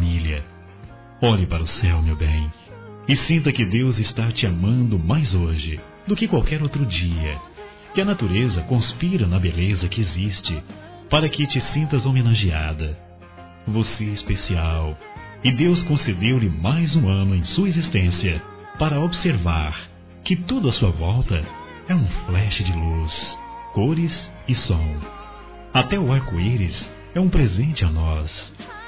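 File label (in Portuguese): Esposa Masculino; Aniversários; ANIVERSARIO romantico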